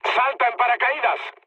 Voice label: bailout, mood med, speech; War Thunder

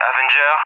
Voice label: "Avenger", ENG; AirDefence; Allied Flight; DCS

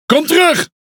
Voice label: Speech Quick Commands Back Ship, NL; World Of Warships

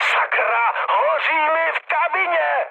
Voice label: on fire, speech cze, mood high; War Thunder

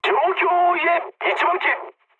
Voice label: jp chat; War Thunder

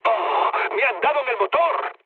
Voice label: damaged engine, mood med, speech; War Thunder

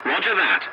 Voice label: voice message yes, english; War Thunder

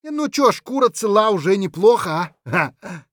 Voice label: scav mutter, voice; Escape from Tarkov; scav6

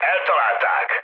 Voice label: aircraft destroyed, speech hun; War Thunder

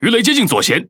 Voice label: Speech Alarms Torpedo Left, Languages ZH TW; World Of Warships

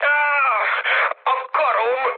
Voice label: damaged pilot, speech hun; War Thunder

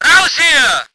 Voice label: voice ger vehicle

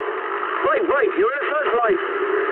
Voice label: eng searchlite mood med, speech eng; War Thunder

